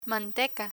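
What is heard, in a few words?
"manteca", Spanish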